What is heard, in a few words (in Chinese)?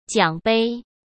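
"奖杯", Mandarin Chinese; hsk; chinese flashcards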